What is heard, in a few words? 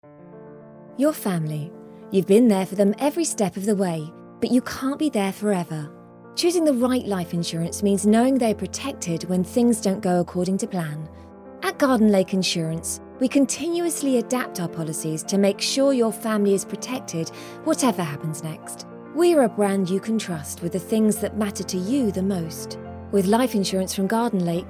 Commercial, voice